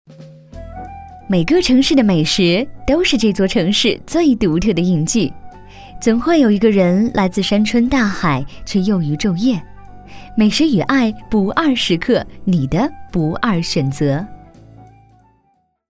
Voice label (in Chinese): 美食片花